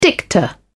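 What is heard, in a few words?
"dicta", British English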